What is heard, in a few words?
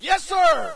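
"yes sir", player, male; video games; source engine; dod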